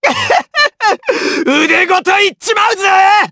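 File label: Vox Skill, Japanese; Kibera; kings raid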